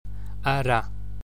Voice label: broad r (r), gaelic

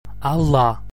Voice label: broad ll (L), gaelic

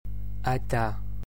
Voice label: slender d (Munster), gaelic

